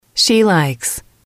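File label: "she likes", English, female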